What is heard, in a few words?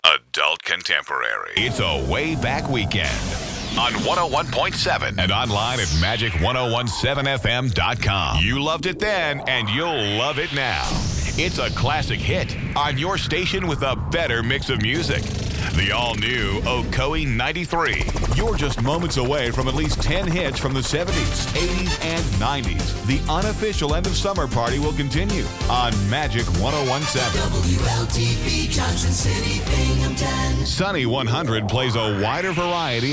Imaging Demo